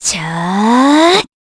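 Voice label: Vox Casting, Korean; Valance; kings raid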